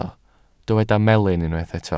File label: "melyn", Welsh